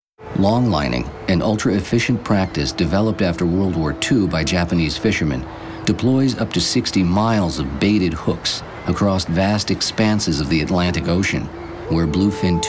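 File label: narration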